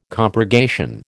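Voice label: "compurgation", American English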